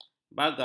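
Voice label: "bagau", Malay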